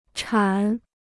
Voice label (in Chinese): "产", Chinese